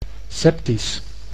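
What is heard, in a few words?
"septisch", Dutch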